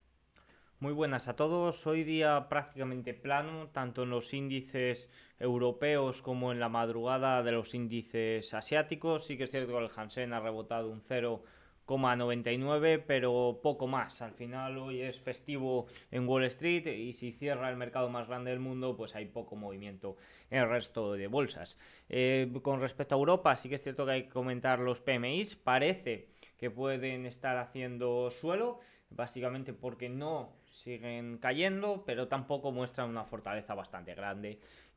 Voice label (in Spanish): radio; noticias bolsa; sin apenas movimiento con el cierre de wall street